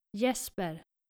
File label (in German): "jesper", nordische jungennamen